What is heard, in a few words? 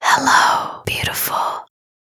asmr girl hello beautiful; ringtone; asmr ringtones